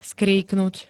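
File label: "skríknuť", Slovak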